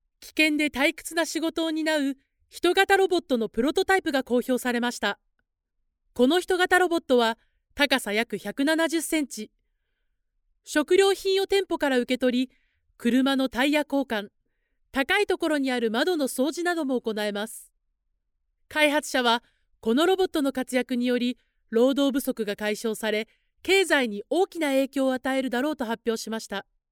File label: female japanese voice over narrative